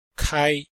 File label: "kai1", Chinese